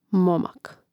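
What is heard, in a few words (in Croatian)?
"momak", Hrvatski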